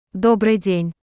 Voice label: Russian Good afternoon; Greetings